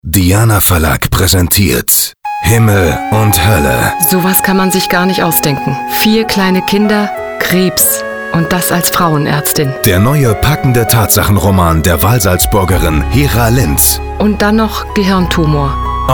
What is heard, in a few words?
Radiospot; HIMMEL UND HÖLLE